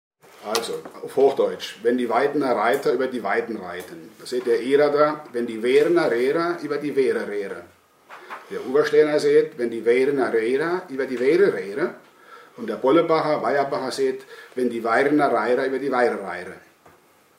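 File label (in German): weidener reiter, O-Ton Hunsrück; Idar-Oberstein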